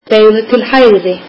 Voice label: icelandic Turn right